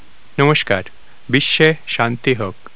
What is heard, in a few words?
bengali; Golden Record